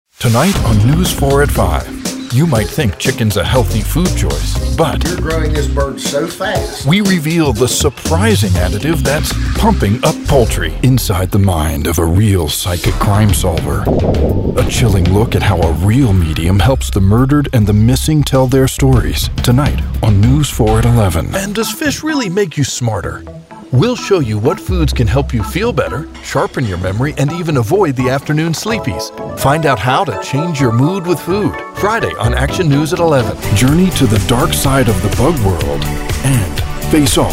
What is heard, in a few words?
Promo